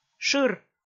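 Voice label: "шыр", Komi, female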